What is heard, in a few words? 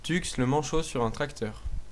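tux tractor desc, French; tuxpaint-stamps; vehicles; farming; cartoon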